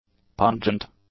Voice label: "pungent", english; adjectives